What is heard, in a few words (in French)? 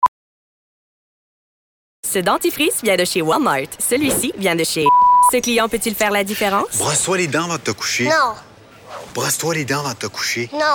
publicite; COMÉDIENNE VOIX